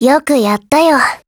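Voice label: Japanese; UKM2000; APPRECIATE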